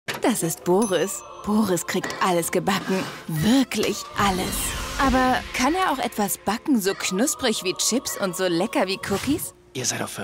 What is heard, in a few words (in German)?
TV Spot; Bahlsen